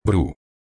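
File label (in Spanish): "bru", Spanish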